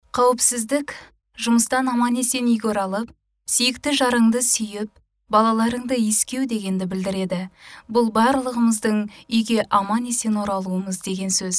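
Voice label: female Kazakh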